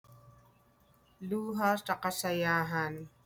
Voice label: Tagalog